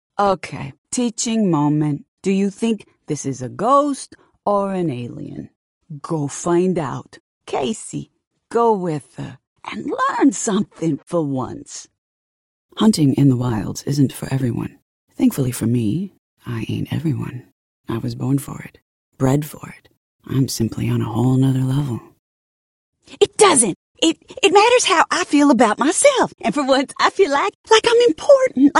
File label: Character